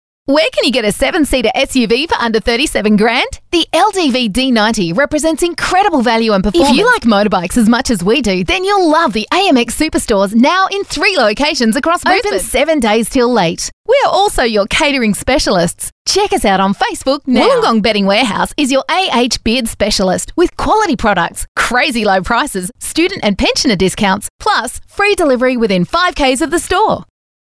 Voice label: Hard Sell